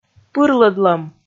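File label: "пырлӧдлӧм", Komi, female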